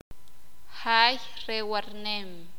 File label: sakapulteko; Posada hospedaje